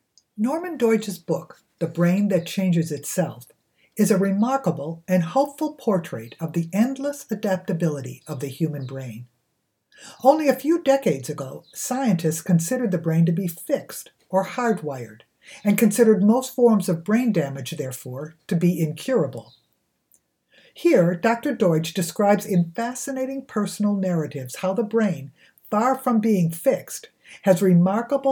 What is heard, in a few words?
Lecture; voice-over